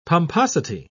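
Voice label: "pomposity", British English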